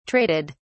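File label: "traded", British English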